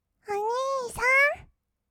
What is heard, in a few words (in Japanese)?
"お兄さん", kawaii voice; ASMR; 二人称; 1200 kawaii voice!!